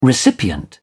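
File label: "recipient", British English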